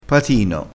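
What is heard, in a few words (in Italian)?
"patino", dialettale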